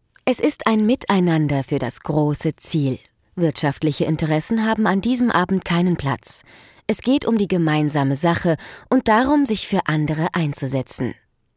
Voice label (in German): Offstimme